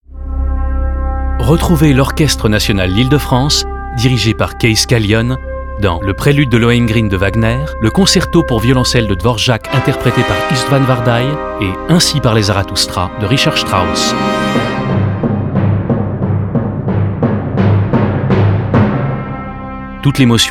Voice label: spot; ONDIF; concert Zarathoustra

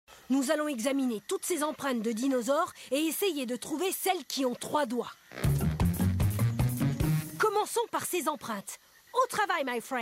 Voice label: Voix de garçon; Go Diego

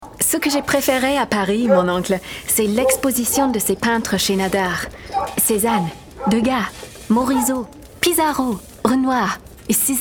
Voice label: FR audioguide musée

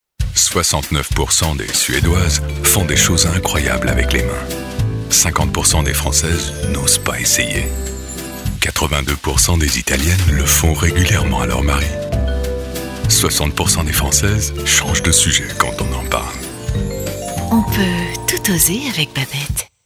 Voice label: VF seducteur voix off voice over; Babette

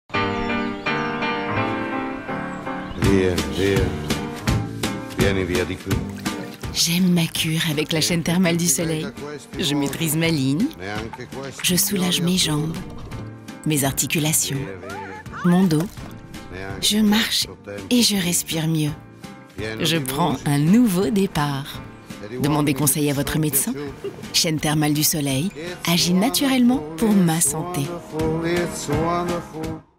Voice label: PUB TV; chaine thermale du soleil

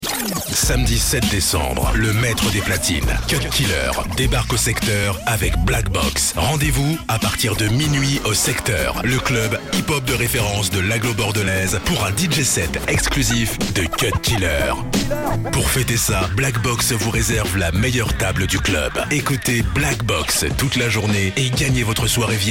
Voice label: Habillage TV Radio FR

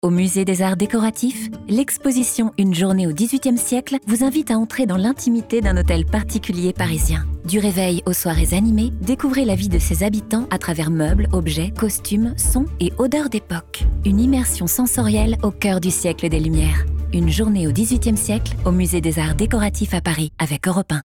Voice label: PUB RADIO FR; Musee arts decoratifs

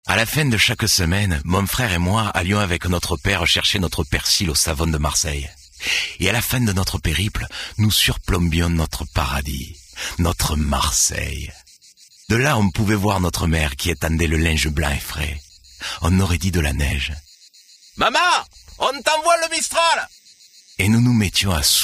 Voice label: voix accent marseillais

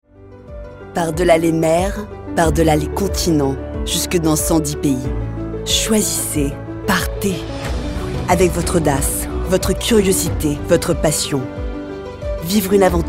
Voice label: Voix Françaises

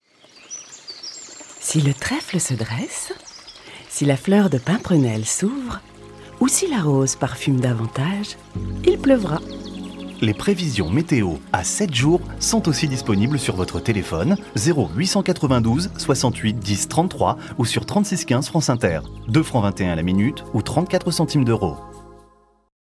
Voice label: La Météo Espiègle

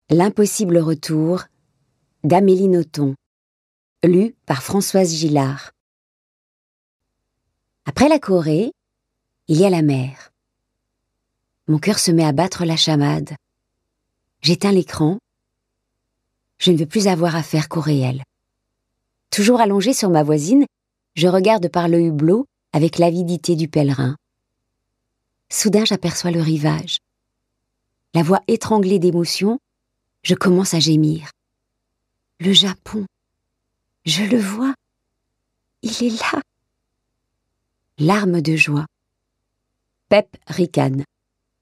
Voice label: Livre audio; voix-off; L'Impossible retour; Amelie Nothomb

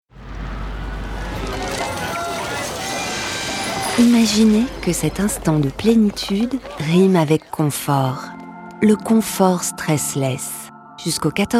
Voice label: pub sensuelle douce; STRESSLESS

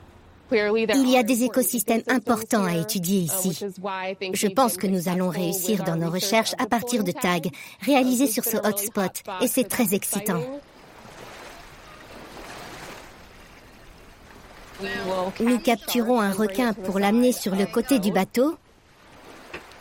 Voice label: VO femme veterinaire; film; requins; Arte

